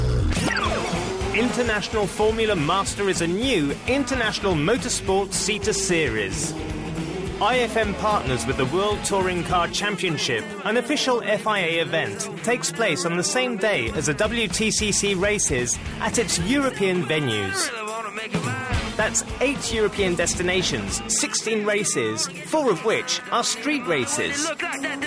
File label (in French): Spot sport anglais